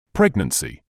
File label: "pregnancy", English